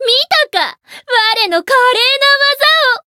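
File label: win, Japanese; tiramisu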